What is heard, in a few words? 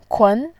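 "kun", Mandarin Chinese, second tone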